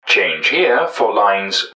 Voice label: change, english man, subway announcers; MetrostroiAddon

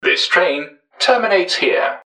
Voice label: last, english man, subway announcers; MetrostroiAddon

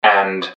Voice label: "change and", english man, subway announcers; MetrostroiAddon

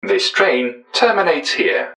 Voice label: last, english man, subway announcers; MetrostroiAddon

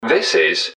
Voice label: this, english man, subway announcers; MetrostroiAddon

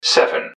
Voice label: "seven", english man, subway announcers; MetrostroiAddon

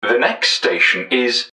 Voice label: next, english man, subway announcers; MetrostroiAddon

